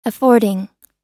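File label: "affording", American English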